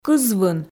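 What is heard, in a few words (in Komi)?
"кызвын", Komi, female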